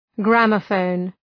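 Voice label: "gramophone", English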